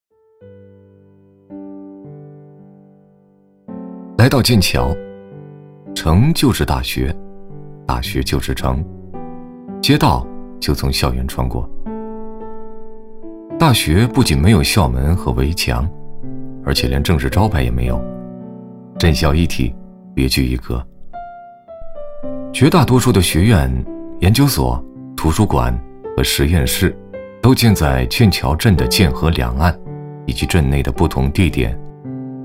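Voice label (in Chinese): 男 纪录片（轻松 自在）; 剑桥大学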